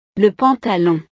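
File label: "le pantalon", French; ropa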